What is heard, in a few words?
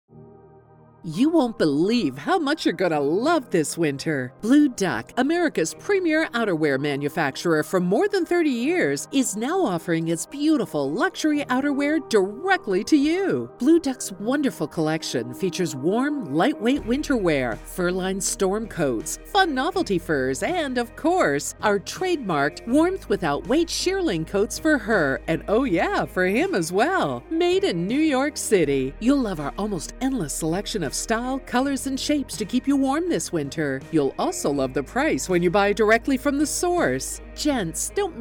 Radio Spot; Sirius XM Flight